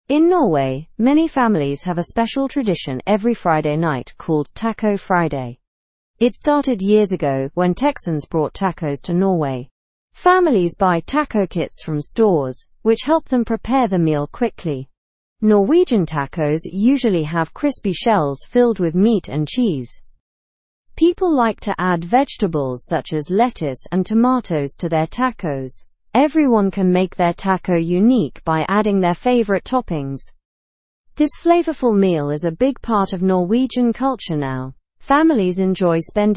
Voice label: english through news lower intermediate normal; norway s love for tacos